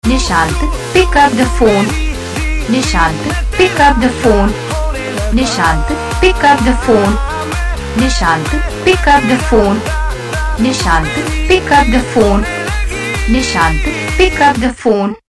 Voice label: Pick Up The Phone, Ringtones

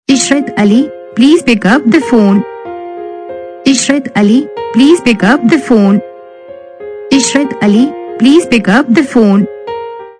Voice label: pick up phone saying, Ringtones; Your Name Ringtones A To Z Names

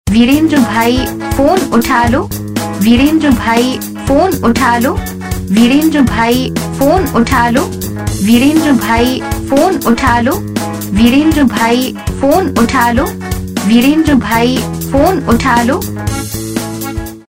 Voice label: Phone Uthao, Ringtones

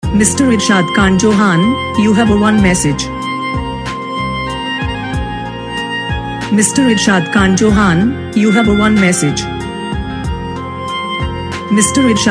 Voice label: you have one message saying, Ringtones; Your Name Ringtones A To Z Names

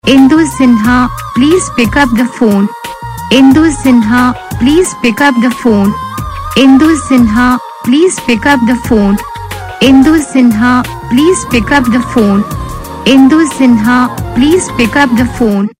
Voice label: pick up the phone saying, Ringtones

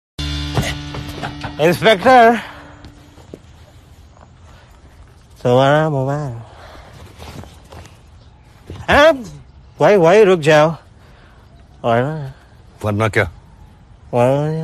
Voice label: Funny Dialogue Ringtone; Inspector Mobile; Dhamaal

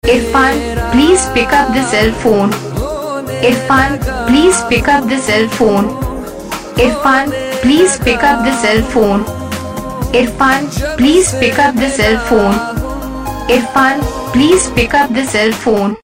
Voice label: pick up the cell phone saying, Ringtones; Your Name Ringtones A To Z Names

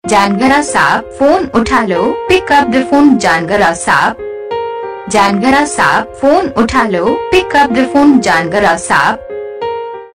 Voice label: phone utta lo pick up the phone saying

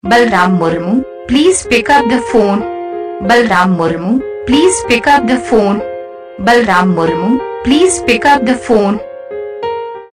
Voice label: pick up the phone saying, Ringtones

